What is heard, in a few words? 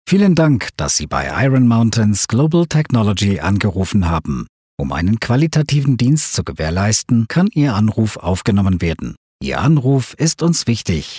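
German, male, German IVR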